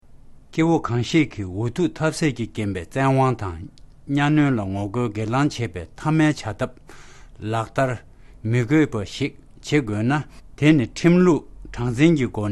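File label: Preamble Tibetan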